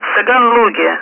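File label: Speech, Russian, air traffic control; Callsign; Soganlug; DCS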